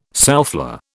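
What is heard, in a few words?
"soufflé", British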